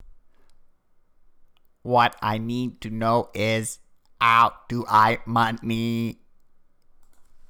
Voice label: bad voice